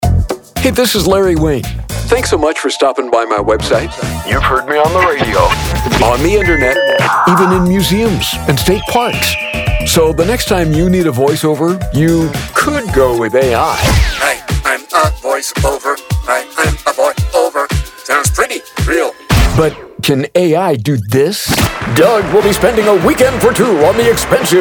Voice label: personal greeting